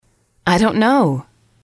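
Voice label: "I dont know", English, female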